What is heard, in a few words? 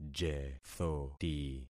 "jethoti", concat syllables; Affixing experiment